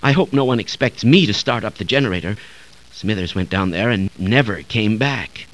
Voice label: sci talk; tfc; dustyescape